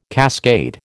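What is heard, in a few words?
"cascade", American English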